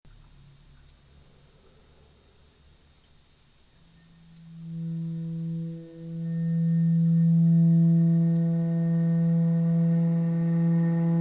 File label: dialog